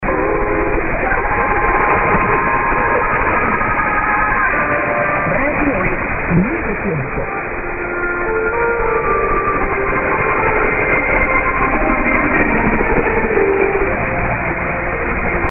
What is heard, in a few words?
radio; radio noivi tiempo peru